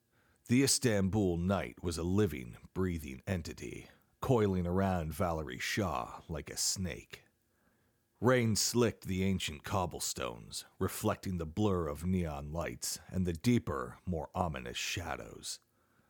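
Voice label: Audio Book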